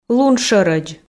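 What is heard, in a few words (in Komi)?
"луншӧрӧдз", Komi, female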